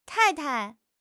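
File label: "tai4 tai5", Chinese; HSK3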